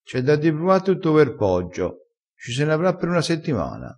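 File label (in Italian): "dibrua", dialettale crespinese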